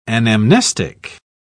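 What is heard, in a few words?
"anamnestic", British English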